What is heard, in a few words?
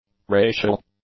"racial", English; adjectives